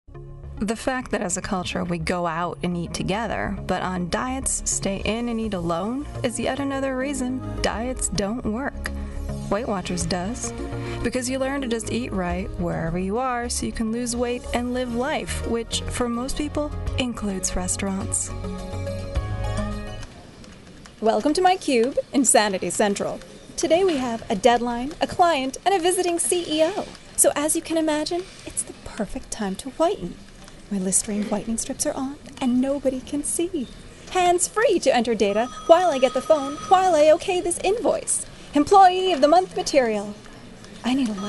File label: Voice Over